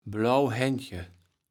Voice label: "blauw Häntge", kaevels platt

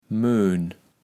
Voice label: "Möhn", Platt

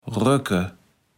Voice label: "rökke", Platt

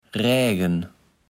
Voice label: "Rägen", Platt

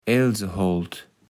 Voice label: "Elseholt", kaevels platt

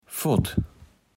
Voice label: "Fott", Platt

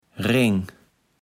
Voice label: "Reng", Platt

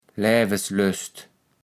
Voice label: "Läveslöst", kaevels platt

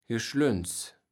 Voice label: "Geschlöns", kaevels platt

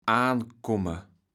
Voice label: "aankomme", kaevels platt

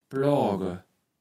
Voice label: "ploage", kaevels platt

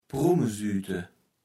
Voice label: "Prummesüüte", Platt

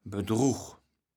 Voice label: "Bedrug", kaevels platt

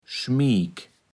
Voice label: "schmiek", Platt

